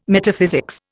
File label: "Metaphysics", Indian